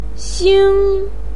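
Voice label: "xing1" Chinese syllable, pinyin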